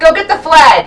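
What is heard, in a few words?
female get flag; lmctf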